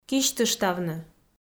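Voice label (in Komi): "кисьтыштавны", Komi, female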